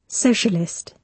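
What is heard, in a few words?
"socialist", British English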